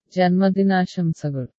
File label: happy birthday malyalam